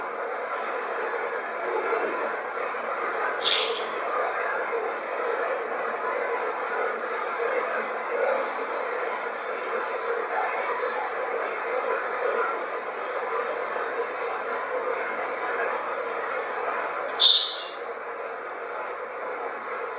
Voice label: Barbershop, The Ovilus produced two odd words with "The" and "Swing"; greene county historical society museum return investigation; Barbershop Spirit Box; Portal; The Ovilus produced two odd words with “The” and “Swing” at :04 and :17.